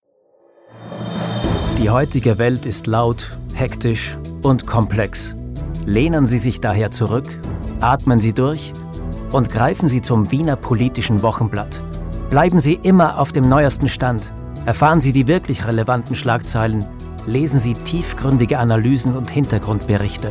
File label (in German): Werbung Zeitung; Sprecherdatenbank